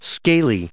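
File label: "scaly", English, us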